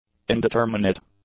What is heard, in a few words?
"indeterminate", English; adjectives